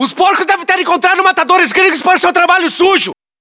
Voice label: hostile burst, battlechatter